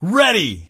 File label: ready, Male; Kenney's Sound Pack; Voiceover